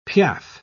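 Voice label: "piaffe", British English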